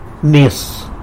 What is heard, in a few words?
"nyis", tlacolula; water